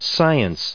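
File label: "science", English, us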